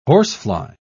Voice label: "horsefly", pronounce

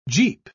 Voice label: "jeep", pronounce

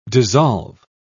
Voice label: "dissolve", pronounce

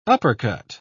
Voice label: "uppercut", pronounce

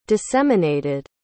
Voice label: "disseminated", pronounce

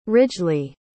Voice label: "ridgely", pronounce

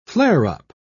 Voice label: "flare-up", pronounce

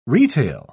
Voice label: "retail", pronounce

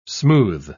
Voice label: "smooth"

